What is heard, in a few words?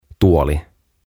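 "Tuoli", "Chair", Finnish, English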